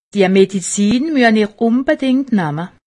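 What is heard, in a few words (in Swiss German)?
"medizin", Alsatian; medecine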